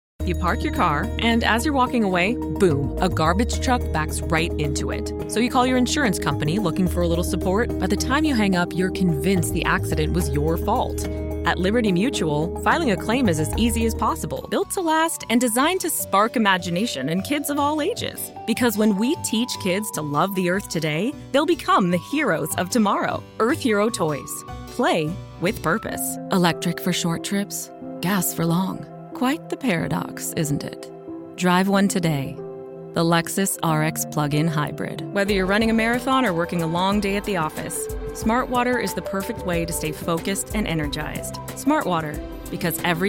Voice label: Commercial